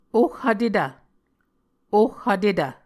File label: "oh vtetv", Muscogee